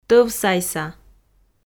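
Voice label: "тӧвсайса", Komi, female; audio dict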